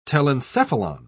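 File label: "telencephalon", British English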